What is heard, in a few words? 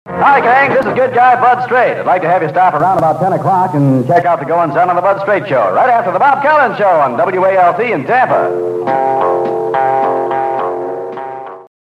promo; 1967